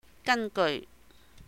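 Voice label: "Gan Geui", Cantonese; according to; 根據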